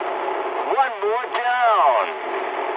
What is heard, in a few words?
eng player killed enemy mood med, speech eng